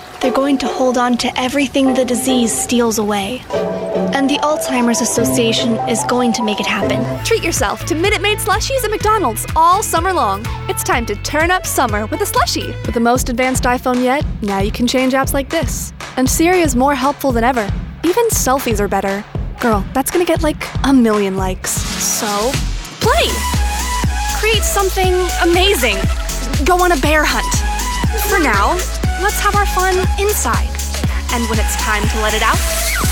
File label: kids commercial